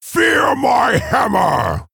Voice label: Male Orc Attack